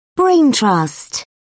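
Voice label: "brain trust", British English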